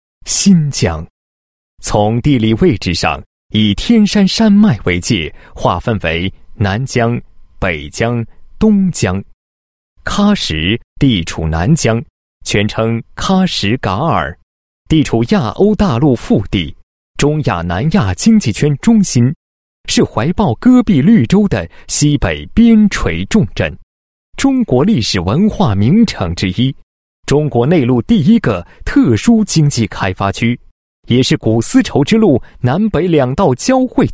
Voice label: 男 解说 公路管理局十二五工作巡礼

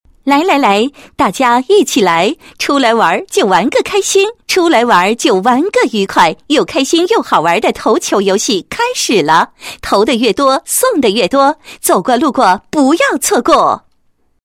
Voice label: 女 宣传广告, 投球游戏宣传

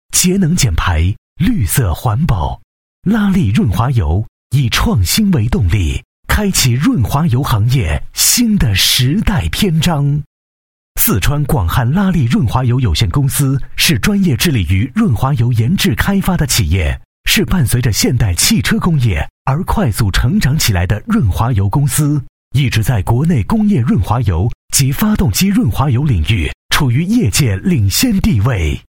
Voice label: 男 产品介绍 科技 品质 激情 大气, 国语男声配音; 拉力 润滑油

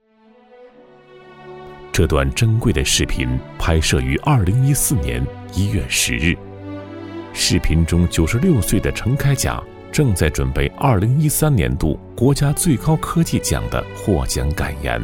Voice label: 男, 纪录片; 赤子之心

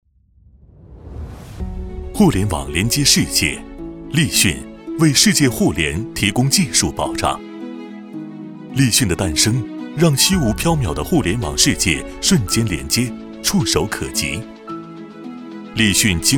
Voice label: 男 电视专题片 科技稳健; 联滔电子

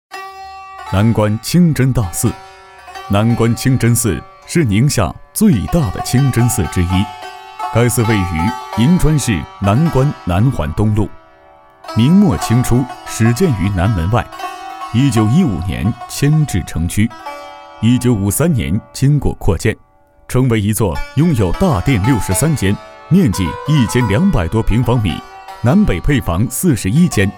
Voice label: 男 纪录片 清真大寺 颇具气势; 南关清真大寺

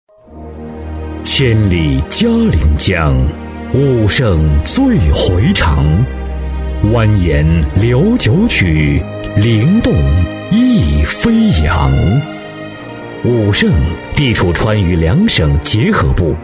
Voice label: 男 专题 房地产, 国语男声配音; 大气专题; 武胜国际

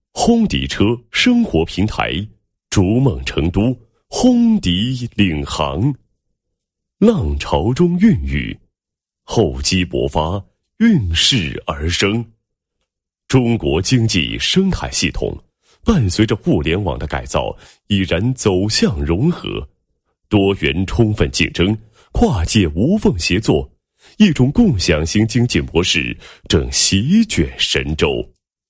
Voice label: 男 汽车服务企业宣传; 轰迪车生活平台

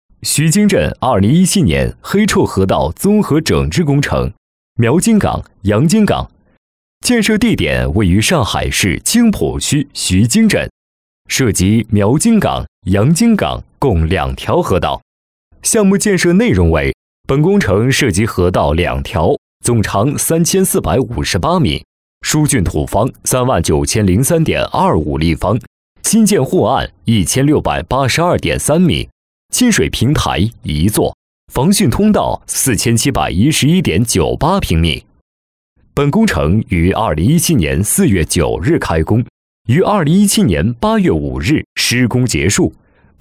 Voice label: 男 工程汇报

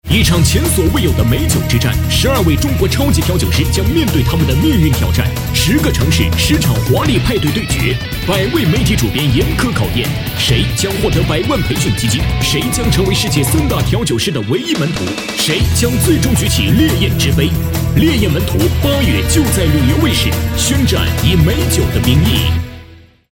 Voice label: 男 广告 振奋激烈, 国语男声配音, 浑厚; 旅游卫视烈焰之杯

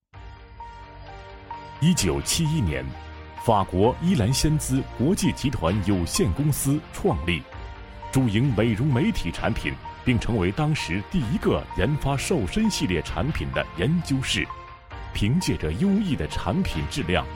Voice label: 男 专题 化妆品宣传介绍, 国语男声配音; 伊兰纤姿

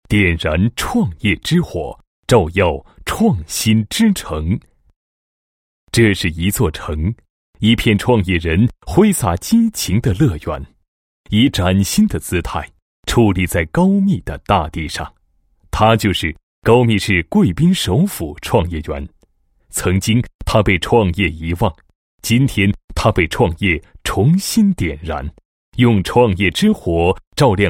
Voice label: 男-申报文稿-创业园申报片文稿, 配音案例; 高密市贵宾首府创业园申报片文稿

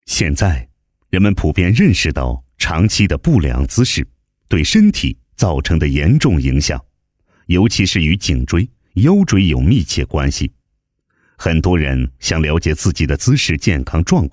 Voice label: 男 品牌宣传 简介配音; 红外人体运动功能分析设备

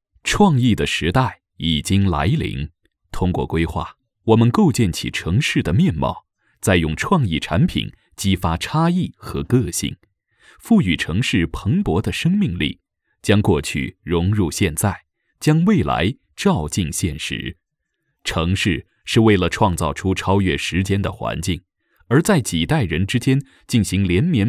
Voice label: 科技解说, 成熟品质, 工程解说; 武汉规划展示馆

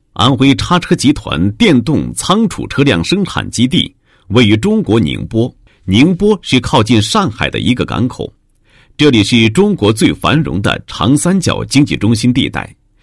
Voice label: 男 企业; 叉车集团电动仓储车辆生产基地简介

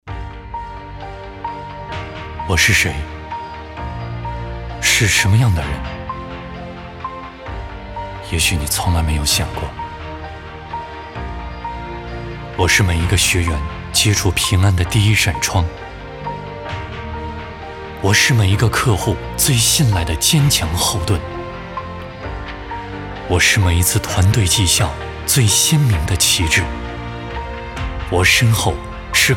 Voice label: 男 旁白类; 我是谁

